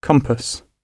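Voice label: "compass" spoken, British English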